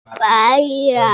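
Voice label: "bái yún", Mandarin Chinese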